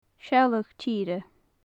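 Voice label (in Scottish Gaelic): "sealladh tìre", Gaelic; landscape; cruth-tìre; cruthan-tìre, dealbhan-tìre, seallaidhean-tìre; Faclan Nàdair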